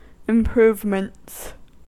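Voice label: "improvements", US English; pronunciations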